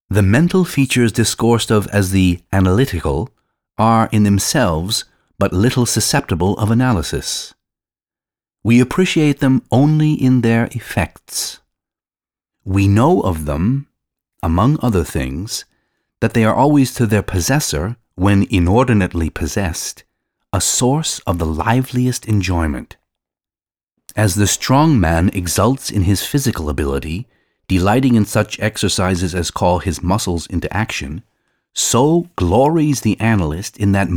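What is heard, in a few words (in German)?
US English, Kommentar Neutral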